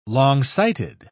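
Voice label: "longsighted", British English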